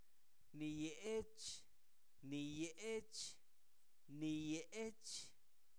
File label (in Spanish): anaranjado, Zapoteco, santo domingo albarradas; adjetivos; INALI